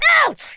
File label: ouch, Viking; speech